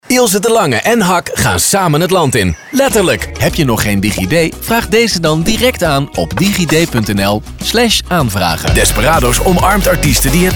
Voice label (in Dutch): stemdemo commercieel